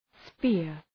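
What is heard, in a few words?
"spear", English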